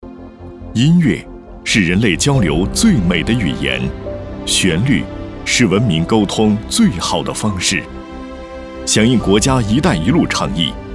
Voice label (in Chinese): 男 宣传片; 一带一路; 深圳音乐艺术节